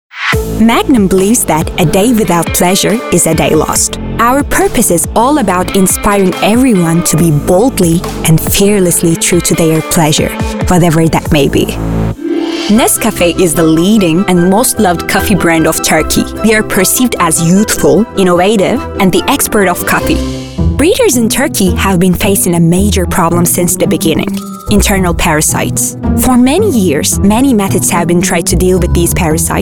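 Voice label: English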